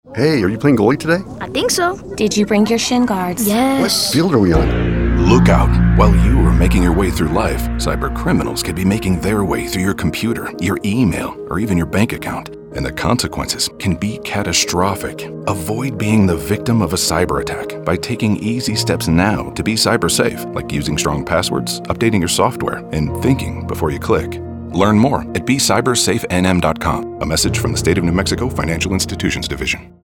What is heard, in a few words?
Radio Spot; FID Look Out